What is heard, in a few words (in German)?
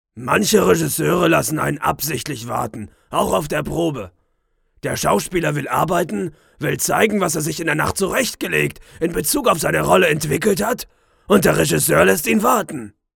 trickstimme; Muttersprache Deutsch